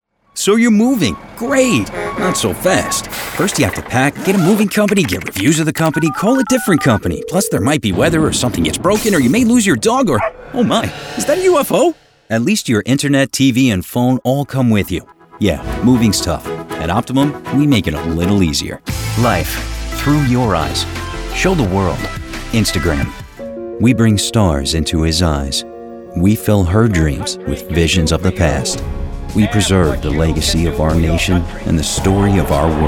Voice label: commercial American man

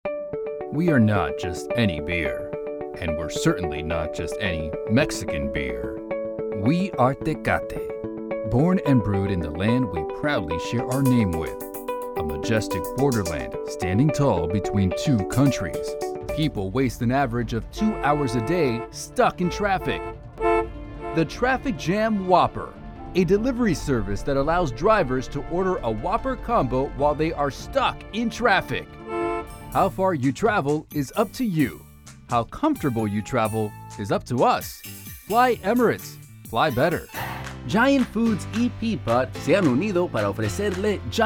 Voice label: commercial

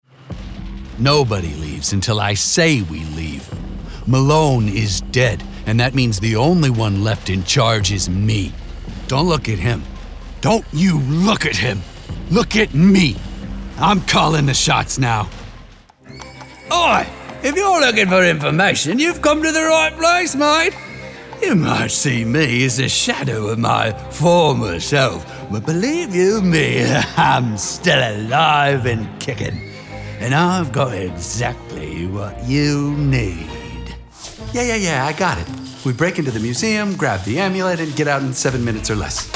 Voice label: Character

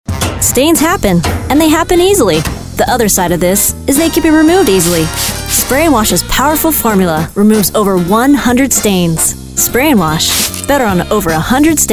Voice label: COMMERCIAL

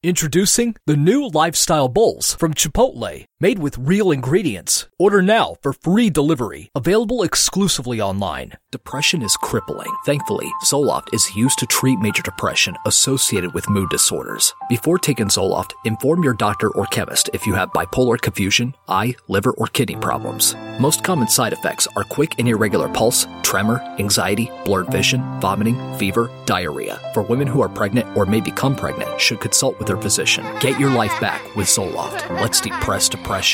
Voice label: Commercial

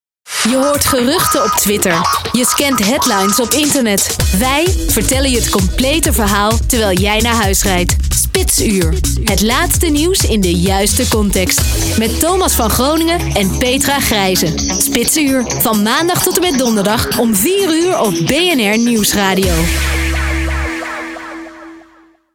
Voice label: promo Dutch

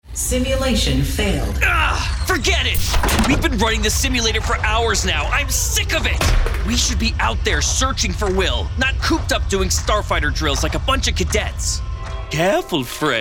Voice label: Character

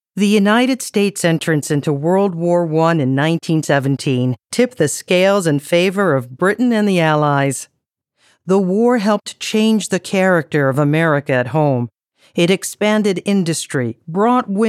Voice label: eLearning

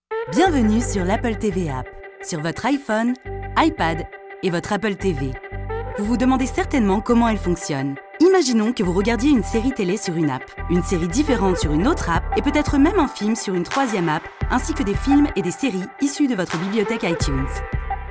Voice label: Commercial French

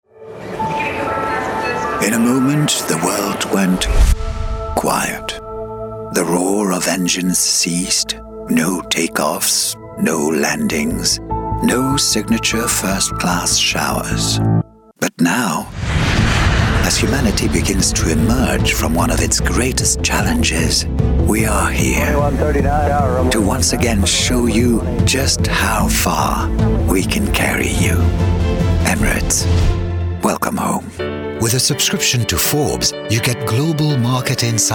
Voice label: Commercial English

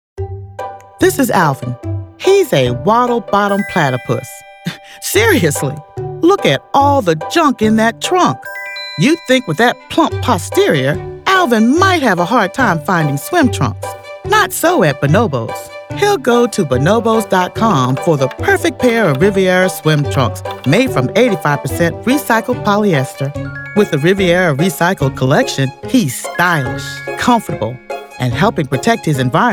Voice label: EXPLAINER

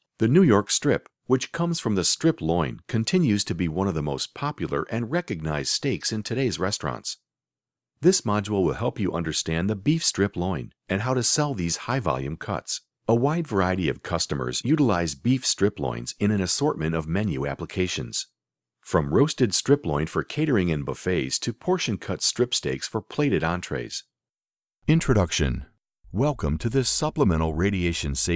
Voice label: Corporate Narration

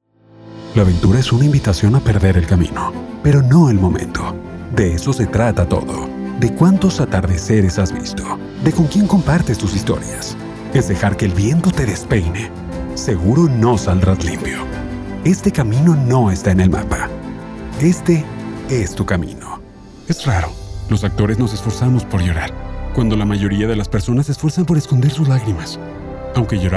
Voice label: Narration

